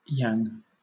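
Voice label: "yang", British English; pronunciations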